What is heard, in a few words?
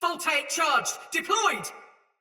Attack Soldier Mace Agent Female; Ode Tech; Sinecure; Battle; Sinecure Melee